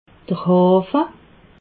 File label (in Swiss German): "hafe", alsace